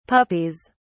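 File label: "puppies", British English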